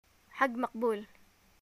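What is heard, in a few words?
greeting hajj, Yemeni arabic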